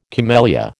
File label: "camellia", American